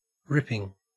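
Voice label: "ripping", Australian English; pronunciations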